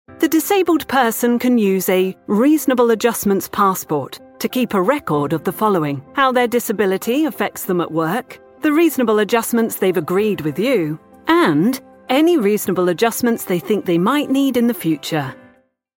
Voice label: narration e learn; disa